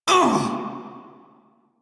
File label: Pain Male en; Dialog; Warframe; Railjack Crew; Red Veil